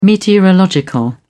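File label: "meteorological", English, British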